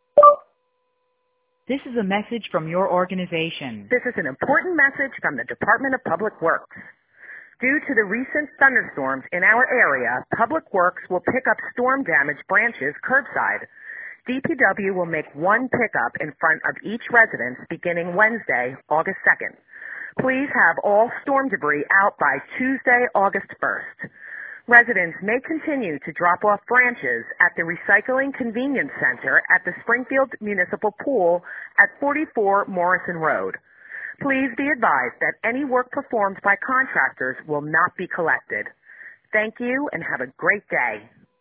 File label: voicemail; public works; branch pick up next week